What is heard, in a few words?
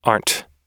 aren't, US English